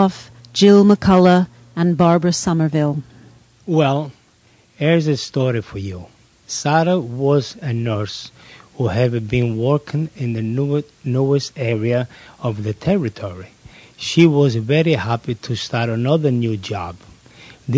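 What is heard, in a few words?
italy, International Dialects of English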